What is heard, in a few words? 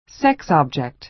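"sex object", American English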